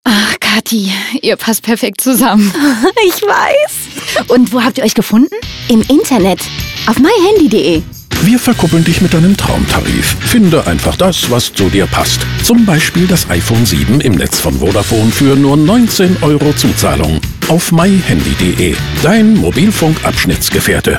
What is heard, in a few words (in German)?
Funkspot Online Dating; MyHandyDE; bunch sound; Berlin; Funkspotproduktion